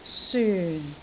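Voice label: "syun4", Cantonese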